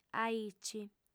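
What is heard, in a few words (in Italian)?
"aici", sarda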